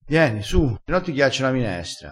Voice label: "ghiaccia", vocabolario dialettale

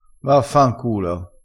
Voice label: "vaffanculo", vocabolario dialettale

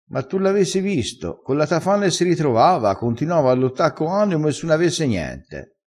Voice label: "tafana", vocabolario dialettale crespinese

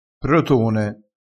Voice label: "protone", dialettale, crespinese

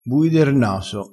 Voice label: "bui der naso", vocabolario dialettale crespinese

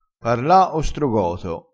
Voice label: "parla ostrogoto", crespinese

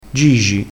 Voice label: "gigi", dialettale crespinese

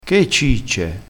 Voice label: "cicce", crespinese

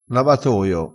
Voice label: "lavatoio", crespinese

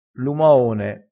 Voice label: "lumaone", crespinese

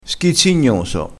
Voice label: "schizzignoso", dialettale

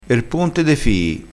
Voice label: "ponte de fii", crespinese

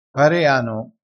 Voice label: "pareano", crespinese

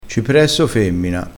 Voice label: "cipresso femmina", crespinese

